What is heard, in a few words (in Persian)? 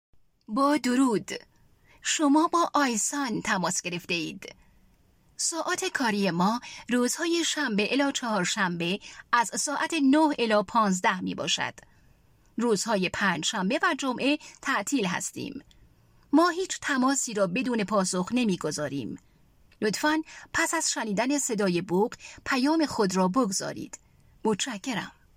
صدای خانم